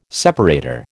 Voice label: "separator", American